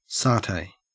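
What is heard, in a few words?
"satay", Australian English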